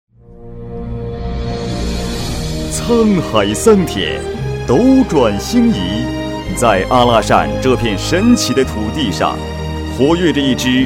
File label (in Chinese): 男, 国语, 大气 磁性, 专题; 沧海桑田